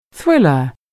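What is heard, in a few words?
"thriller", English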